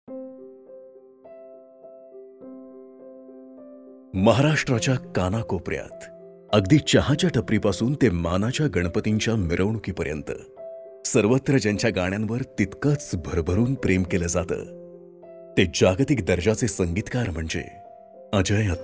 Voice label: Documentary Emotional, Marathi; Pride